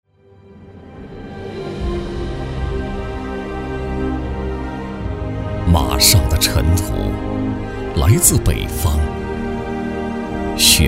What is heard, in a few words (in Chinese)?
男100-历史散文-悲壮 凄凉, 国语男声配音